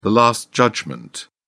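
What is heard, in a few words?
"last judgment", British English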